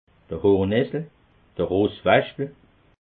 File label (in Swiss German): "hornessel", alsace